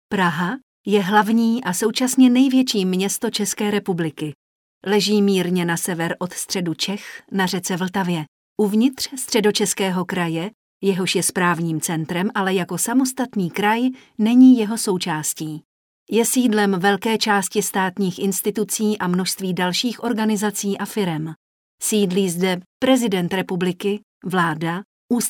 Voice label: female czech voice over narrative